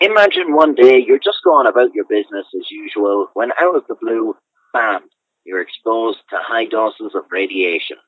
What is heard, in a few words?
Nokia 5 Voice Line 16K, android call recordings